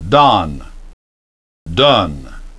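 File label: "don" "done", English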